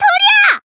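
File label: attack, Japanese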